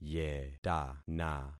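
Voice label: "yetana", concatenated syllables; Affixing experiment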